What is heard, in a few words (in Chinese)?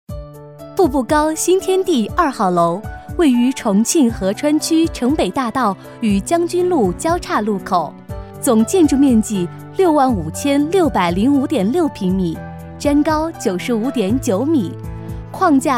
女, 专题, 国语女声; 建筑; 步步高·新天地